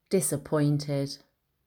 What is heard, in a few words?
"disappointed"